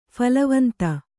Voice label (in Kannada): "ಫಲವಂತ", Kannada